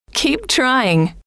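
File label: keep trying, female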